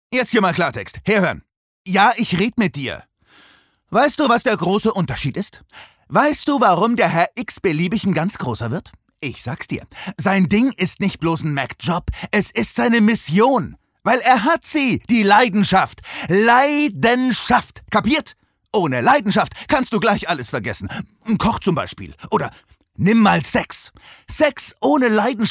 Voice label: Schauspiel Energisch

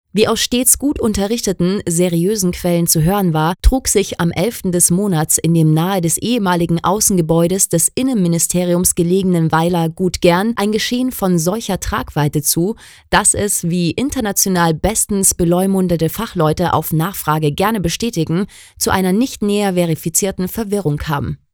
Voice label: Trocken Neutral

